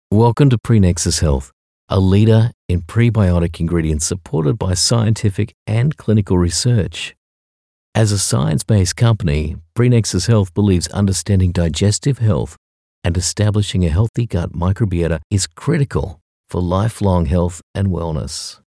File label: english australian narration